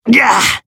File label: Voice damaged, jp; hardy